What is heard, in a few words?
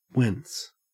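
"whence" English, Australian; pronunciations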